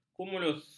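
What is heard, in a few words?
"kumulus", Malay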